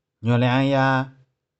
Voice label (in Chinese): "nioh4liang3ya3", 玉環